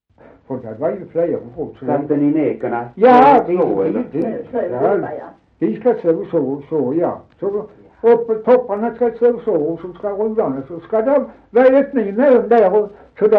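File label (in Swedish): Dalby Skåne, dialekter; Folkminnen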